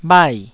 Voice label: "bhai4", taiwanese